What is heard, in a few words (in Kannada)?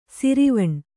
"ಶರವಣ", Kannada